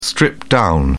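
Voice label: "stripped-down", British English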